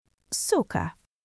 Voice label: Russian, russian phrases insults; ロシア語のネガティブなフレーズ